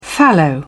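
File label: "fallow", English, British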